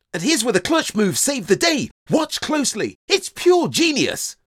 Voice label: Gaming Fast Paced and Witty, voiceover